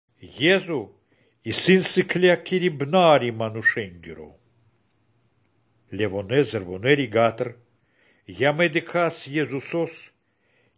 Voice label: good news baltic romani